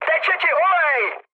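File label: damaged oil, Czech, mood high; speech; War Thunder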